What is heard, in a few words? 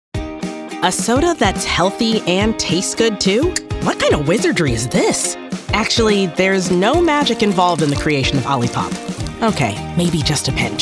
Narration, female